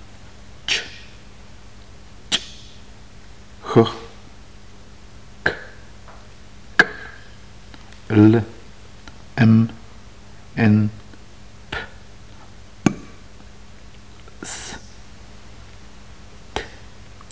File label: alphabet, Yucatec Maya